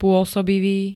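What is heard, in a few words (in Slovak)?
"pôsobivý", Slovak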